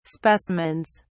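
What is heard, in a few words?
"specimens", English, British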